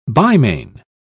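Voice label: "bimane", English, uk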